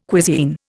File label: "cuisine", Indian